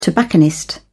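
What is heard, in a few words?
"tobacconist", British English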